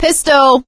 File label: take pistol, survivor voice; source engine; left 4 dead 2; producer